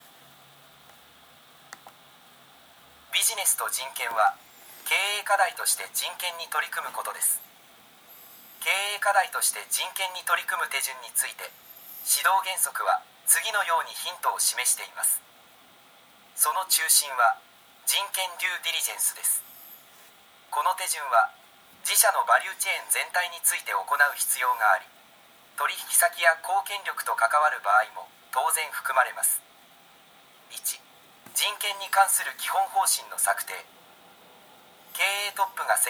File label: elearning; japan; business and human rights